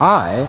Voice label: "i", male British; Alphabet